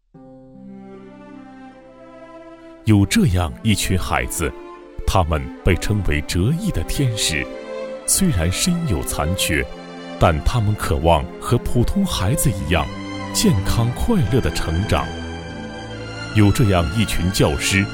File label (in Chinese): 男 磁性亲和; 大连普兰店特殊教育中心